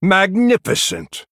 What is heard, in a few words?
Male Night Elf, "Wow"